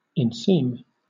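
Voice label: "inseam", British English; pronunciations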